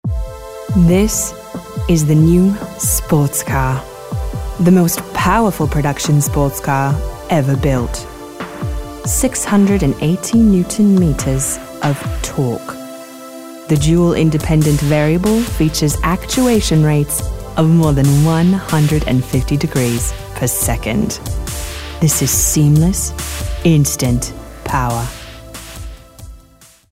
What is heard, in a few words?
British